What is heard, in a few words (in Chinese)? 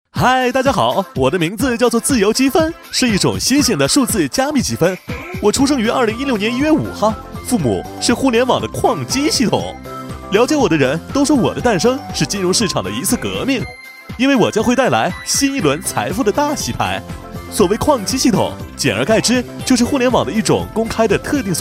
男60号; 国语男声配音; 交广主播; 飞碟说; 自由积分